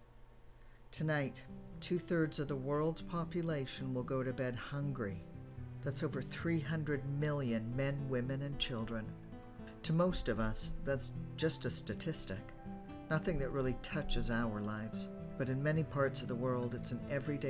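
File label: voice sample advisory psa, female voice; un relief fund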